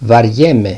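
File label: "bariemai", Greek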